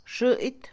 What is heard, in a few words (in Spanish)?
tiempo, Mixe, Mujer; INALI